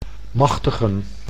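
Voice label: "machtigen", Dutch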